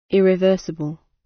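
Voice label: "irreversible", British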